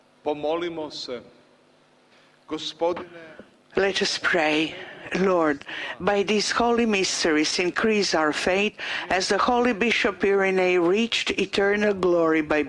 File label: Prayer and Announcement